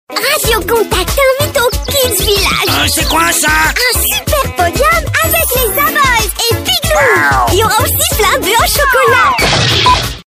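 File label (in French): Belgian French, female, commercial; voice demos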